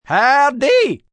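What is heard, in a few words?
"Howdy" male; Greetings; VoiceBox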